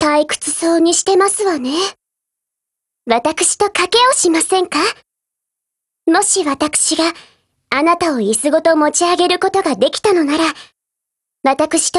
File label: DIALOGUE JP; DesertEagle